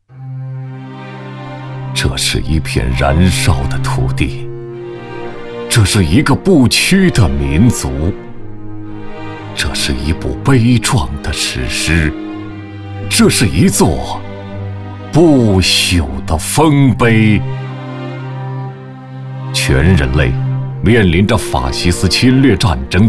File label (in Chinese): 男 悲情; 抗战类